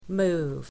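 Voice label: "move", English word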